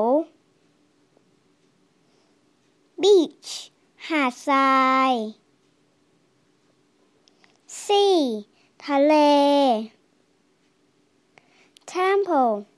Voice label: thai phrasebook tourist places